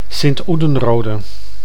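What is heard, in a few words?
"Sint-Oedenrode", Dutch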